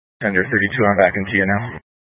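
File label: Incident Tactical 2, Scanner audio recording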